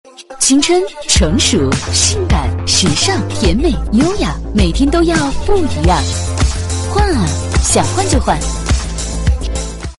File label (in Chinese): 女, 广告, 品牌形象